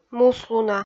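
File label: "муслуна", Komi, female; kpv audio dict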